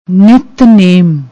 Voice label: "nittnem", Punjabi; ਨਿਤਨੇਮ